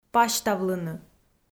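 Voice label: "пасьтавлыны", Komi, female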